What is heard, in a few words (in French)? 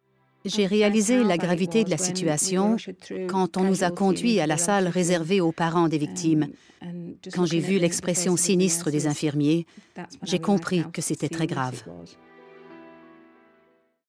Surimpression vocal